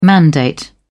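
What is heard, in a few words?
"mandate", British English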